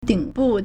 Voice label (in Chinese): "ding3bu4", Chinese